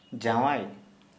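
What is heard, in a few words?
husband, Santali, male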